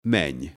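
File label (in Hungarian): "menj", Hungarian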